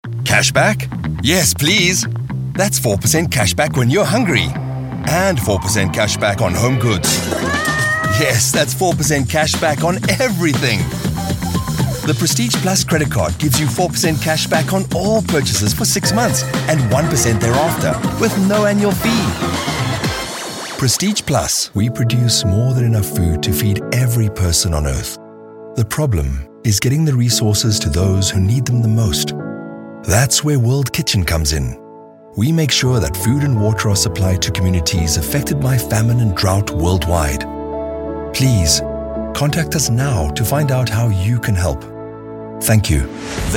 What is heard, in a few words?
South African voiceovers commercial